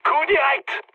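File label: French bomb success mood high; War Thunder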